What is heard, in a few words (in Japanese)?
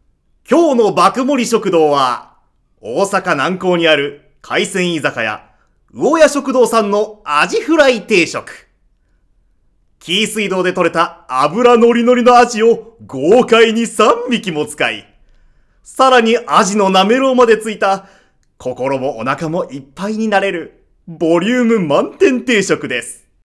ナレ